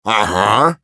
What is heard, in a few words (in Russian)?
Engineer yes, ru